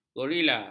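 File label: "gorila", Malay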